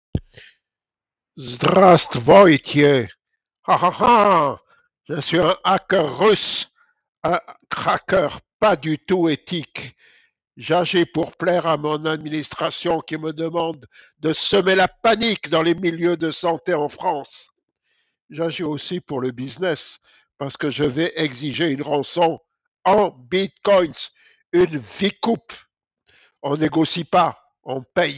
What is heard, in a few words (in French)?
Voix Off